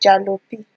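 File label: "jalopy", Nigerian Pidgin, female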